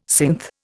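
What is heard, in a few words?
"synth", Indian English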